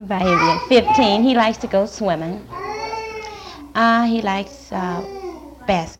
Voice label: Conversation with 32 year old black female Detroit Michigan; american english dialect